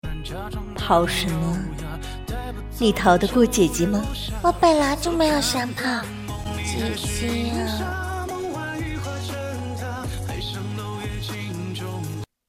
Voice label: 御姐和萝莉

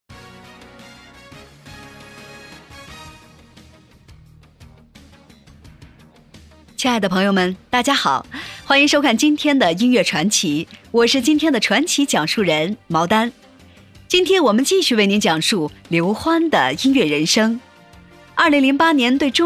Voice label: 节目主持

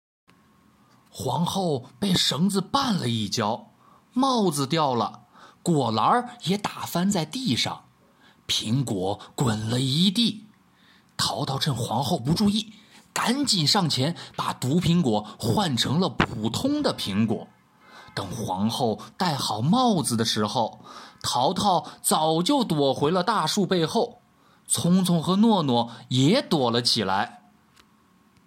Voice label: 有声读物

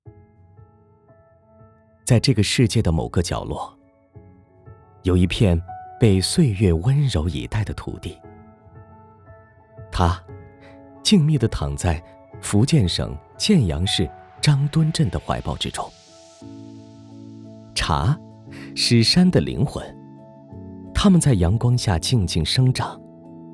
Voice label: 纪录片 茶

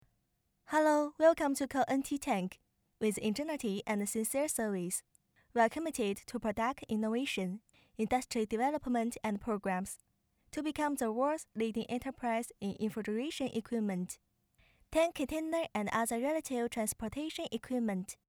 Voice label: 彩铃英语

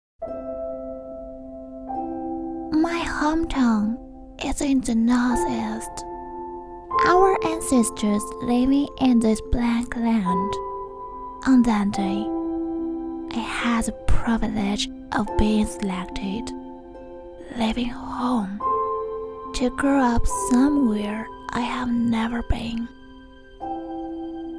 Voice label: 明媚 英语 童声 女童 独白

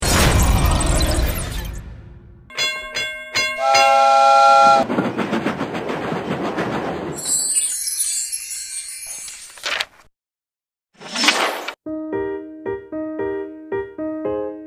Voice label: 新闻大求真系列男童; 湖南卫视

